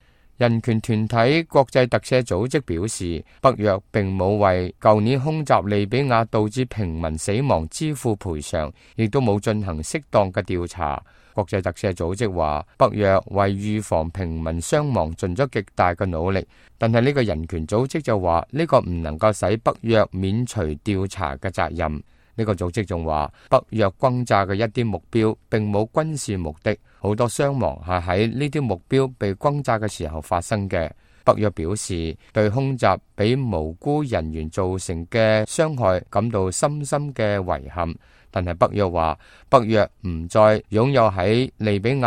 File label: cantonese, news